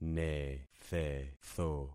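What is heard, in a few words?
"nethetho", concatenated syllables; Affixing experiment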